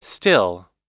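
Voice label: "still", US English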